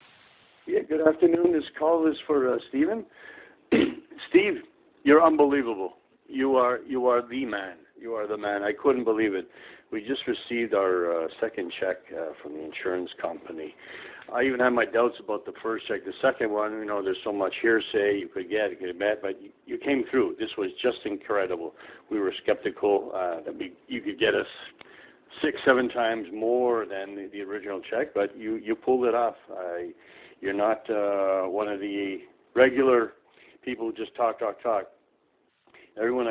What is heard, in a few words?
Voicemail